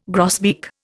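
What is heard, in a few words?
"grosbeak", Indian English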